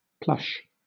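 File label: "plush", British English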